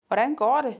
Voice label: "Hvordan går det?", Danish; Danish page 43